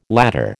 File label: "ladder", American